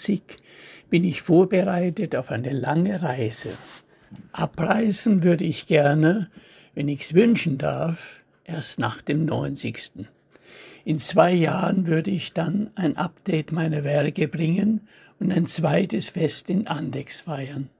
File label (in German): Ausblick, Lyrik, Gedichte eigene Lesung; Ausblick 2025